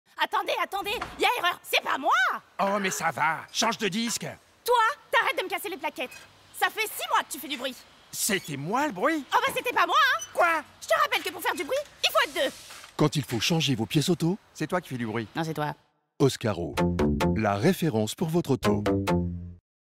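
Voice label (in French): LIVRE AUDIO, comédienne; « Le Papier Peint Jaune » de Charlotte Perkins Gilman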